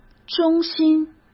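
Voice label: "中心", Mandarin Chinese; chinese-flashcards; hsk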